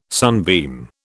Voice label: "sunbeam", British